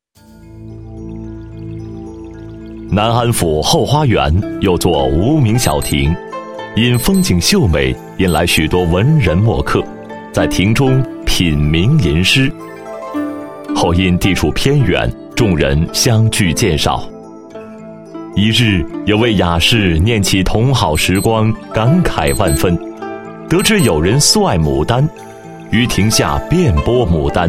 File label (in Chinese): 解说词, 男声配音; 靓仔专题; 梦中有亭 情于心中